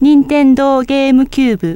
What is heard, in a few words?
Nintendo Gamecube Woman; RvlSDK